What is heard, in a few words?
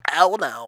"oh no", shouts; Best Service; Hyper Dance